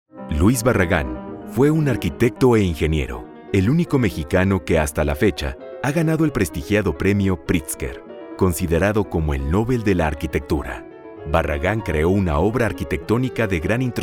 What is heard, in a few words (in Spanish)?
Documental